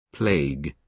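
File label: "plague" English, British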